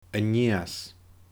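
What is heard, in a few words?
"a-niios", Scottish Gaelic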